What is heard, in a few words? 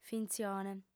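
"fintzione", Sardinian, female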